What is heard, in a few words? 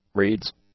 "reeds", English; nouns